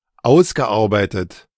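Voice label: "ausgearbeitet", German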